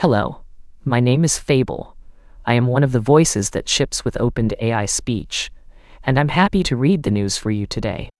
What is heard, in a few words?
news, speech; dtp-newsroom; fable